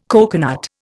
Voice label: "coconut", Indian